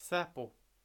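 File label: "SÄPO", Swedish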